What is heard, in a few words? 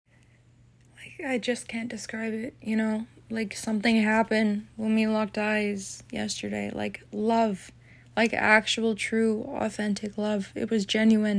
Feminine Voice Describing Love Tiredly